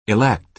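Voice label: "elect", American English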